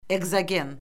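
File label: "ekzogen", Azerbaijani; Orfoqrafiya Lüğəti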